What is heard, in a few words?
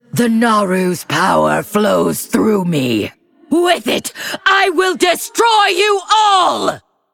Female Undead Lich Victory Pre Explosion; Lich Tamsin